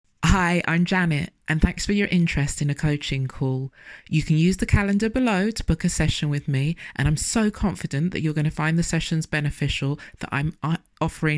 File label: voicenote